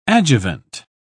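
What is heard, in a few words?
"adjuvant", British English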